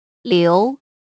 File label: "liu2", pinyin